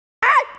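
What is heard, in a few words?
"chai2", Mandarin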